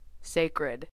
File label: "sacred", us